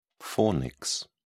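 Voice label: "fornix", English, British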